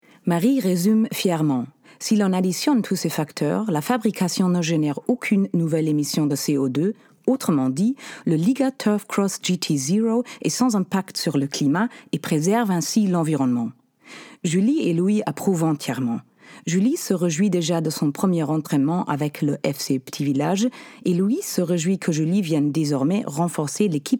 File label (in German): VoiceOver Franzoesisch